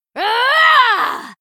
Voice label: Female Night Elf Attack